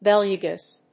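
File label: "belugas", English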